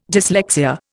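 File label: "dyslexia", Indian English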